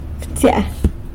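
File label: "btsya" louse, San Lucas Quiaviní Zapotec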